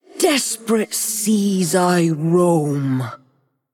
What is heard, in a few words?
Female Human Ghost Play